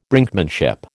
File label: "Brinkmanship", American